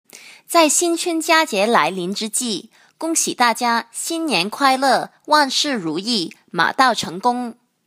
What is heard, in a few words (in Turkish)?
Cince Kadin Mandarin Cin Simplified, seslendirme; pekin